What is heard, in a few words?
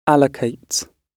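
"allocates", British English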